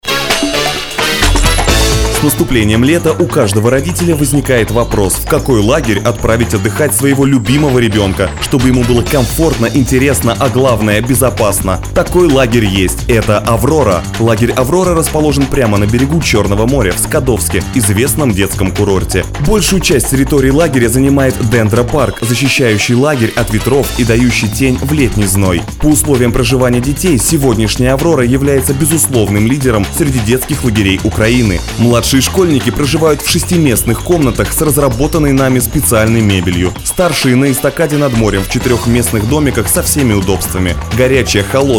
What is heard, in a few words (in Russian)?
Рекламный ролик; ДОЛ Аврора